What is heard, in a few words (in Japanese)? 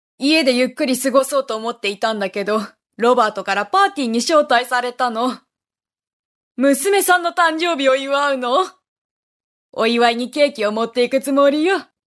50代 女性